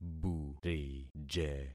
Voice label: "burije", concat syllables; Affixing experiment